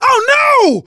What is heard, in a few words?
fall short, survivor voice; manager; Left 4 Dead 2; left4dead2 dlc2